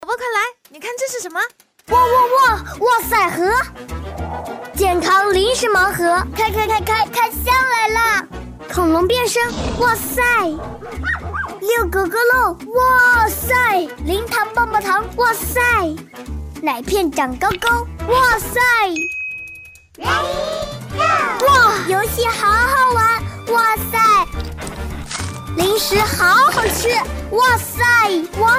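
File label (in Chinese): 女国大音-8岁男童广告; 哇塞盒; 真童配音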